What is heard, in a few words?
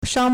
"shang1", Chinese